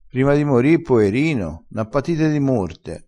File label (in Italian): "di morto", crespinese